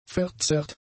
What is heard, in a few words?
"verzerrt", German; adjectives